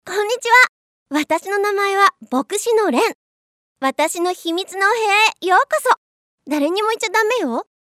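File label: anime cute genki Japanese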